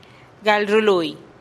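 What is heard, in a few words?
"gal rului", Teotitlán del Valle Zapotec; ensenar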